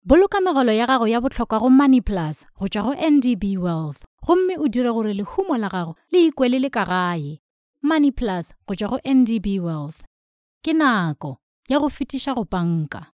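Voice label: Sotho Northern, South Africa, female; sotho northern voice over talent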